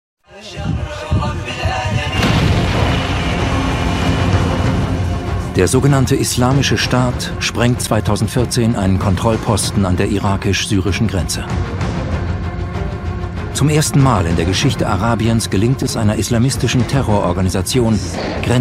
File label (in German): K Fremdtake Dynamisch